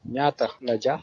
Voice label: howmuch, wolof